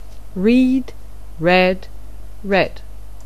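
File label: "read", English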